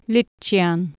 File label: "liccian", Anglo Saxon